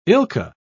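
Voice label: "ilka", English, British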